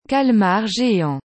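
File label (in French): "calmar geant", French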